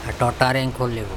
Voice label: "aToTa erenkHolebom", Andamanese